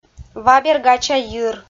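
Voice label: "вабергача йир", Komi-Zyrian, female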